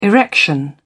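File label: "erection", British English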